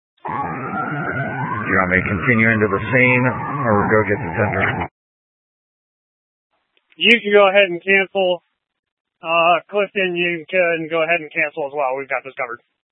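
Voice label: Incident Tac 1, Scanner audio recording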